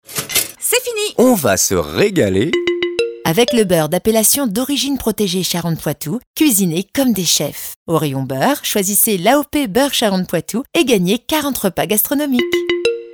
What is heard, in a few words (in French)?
Posee promo; Beurre Ch Poitou